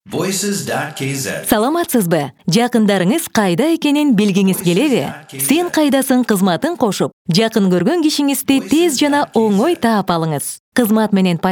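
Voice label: Kyrgyz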